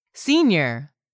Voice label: "SENIOR", english; 3000 words